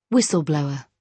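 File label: "whistle blower", British English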